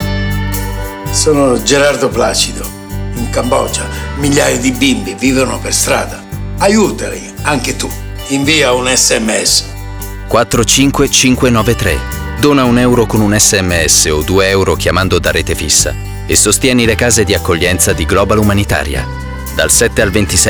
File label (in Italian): SPOT Radio; Sms Bimbe Cambogia